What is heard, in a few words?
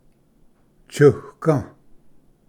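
"tsuga", Cherokee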